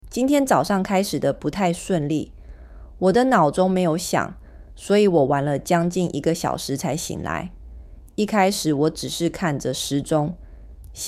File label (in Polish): chinski